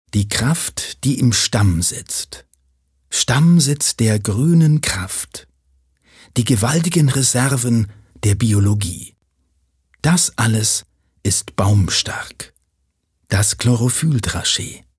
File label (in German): Werbung Sanft